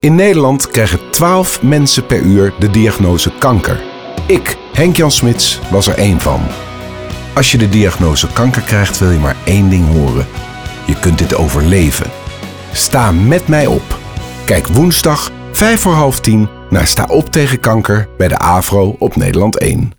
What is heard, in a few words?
Radiospot